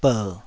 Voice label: "ber3", taiwanese syllables; 台語音節發音檔案